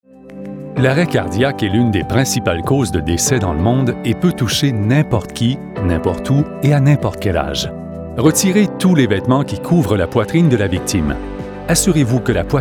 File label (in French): French Male